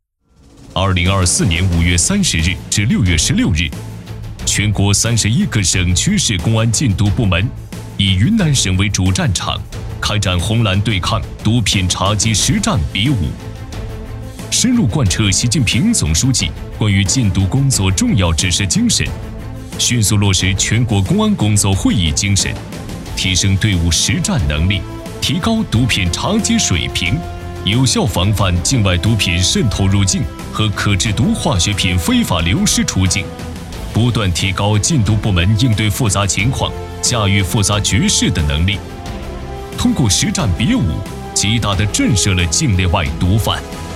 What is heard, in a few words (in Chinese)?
汇报片; 公安部禁毒局红蓝对抗